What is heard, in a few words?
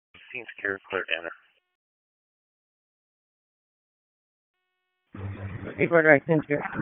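Public Safety Tactical, p25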